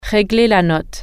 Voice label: "check out", French